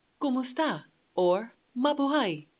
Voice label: hello, Tagalog